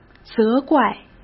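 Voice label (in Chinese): "责怪", Mandarin Chinese; chinese-flashcards; hsk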